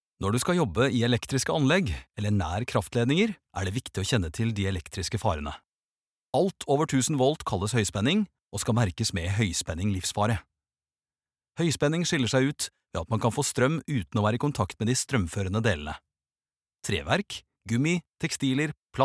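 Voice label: Norwegian elearning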